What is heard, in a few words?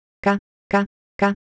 Ewe Motion, IdeoPhones